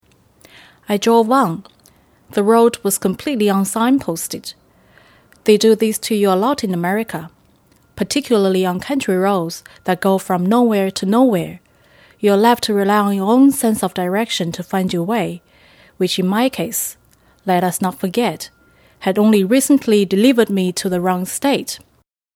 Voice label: chinese mandarin accented english

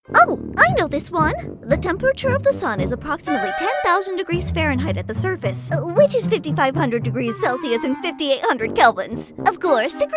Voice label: women animation

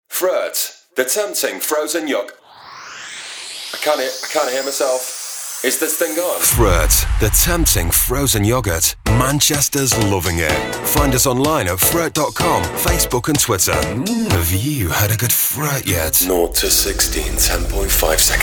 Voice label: british commercial

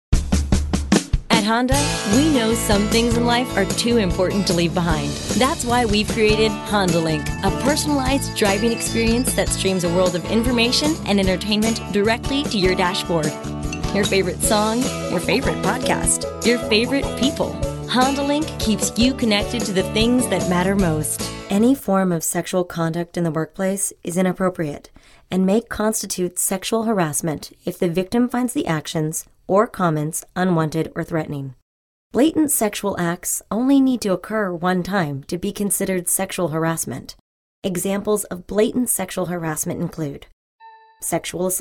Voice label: women narration

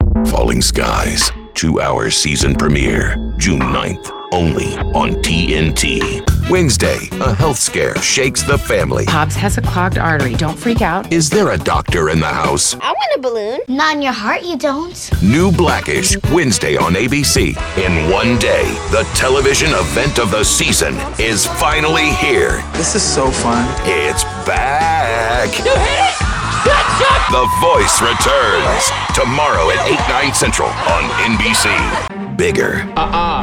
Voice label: men promos

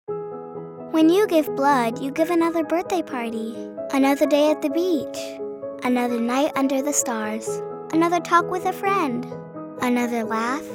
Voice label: kids commercial

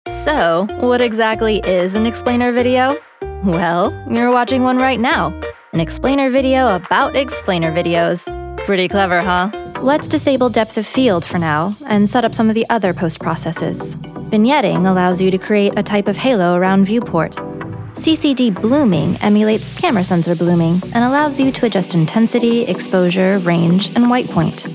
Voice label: women narration

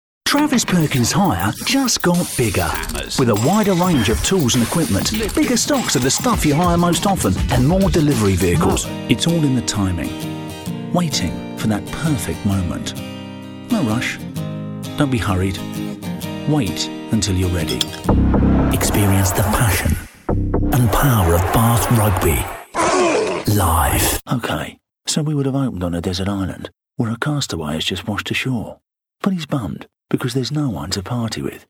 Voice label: british commercial

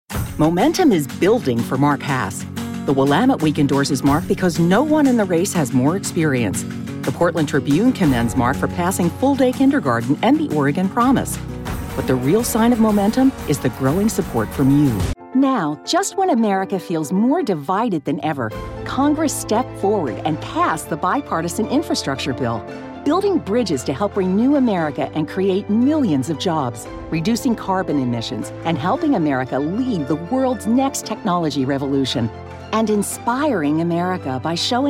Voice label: women political

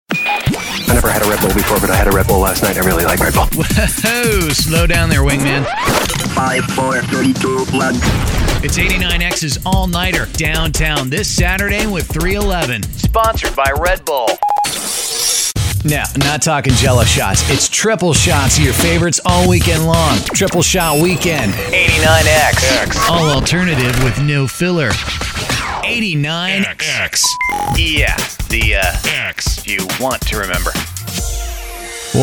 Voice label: men radio imaging

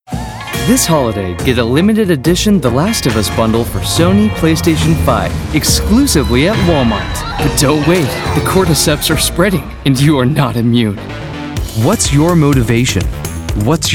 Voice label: kids commercial

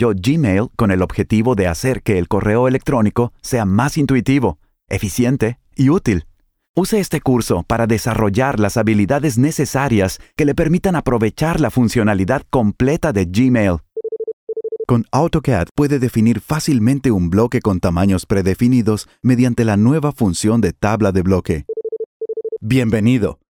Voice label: spanish elearning

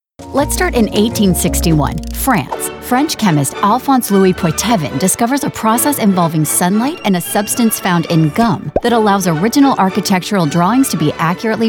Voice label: women corporate

